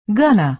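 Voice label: "gonna", American English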